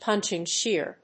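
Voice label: "punching-shear", English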